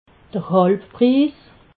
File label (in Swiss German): "halbpris", alsace